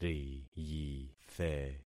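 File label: "riyithe", concatenated syllables; Affixing experiment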